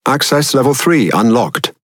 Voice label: Pirate Speech Access Level; World Of Warships